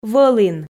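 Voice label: "вӧлін", Komi, female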